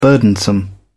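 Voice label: "burdensome", British English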